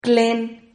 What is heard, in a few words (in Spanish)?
"clen", Silabas